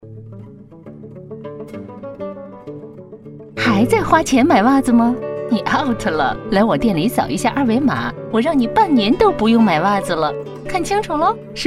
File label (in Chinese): 广告 促销 袜子 亲切